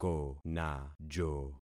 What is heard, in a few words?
"konajo", concat syllables; Affixing experiment